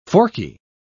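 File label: "forky", British English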